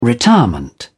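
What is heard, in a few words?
"retirement", British English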